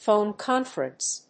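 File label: "PHONE CONFERENCE", English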